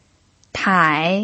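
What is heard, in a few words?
"tai", Mandarin Chinese pinyin, third tone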